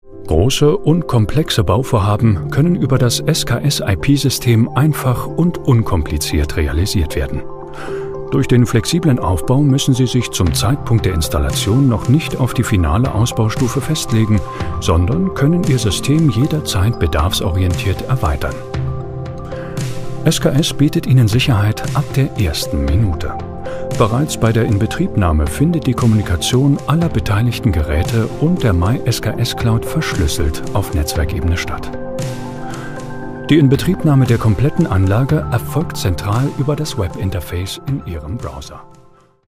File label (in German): Hoerprobe Erklaervideo